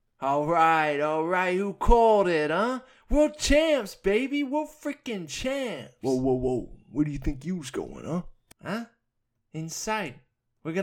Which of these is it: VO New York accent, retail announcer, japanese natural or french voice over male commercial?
VO New York accent